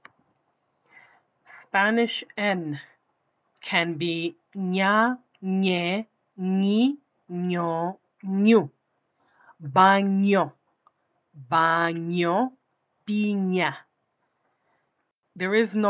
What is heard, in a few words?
Spanish N Audio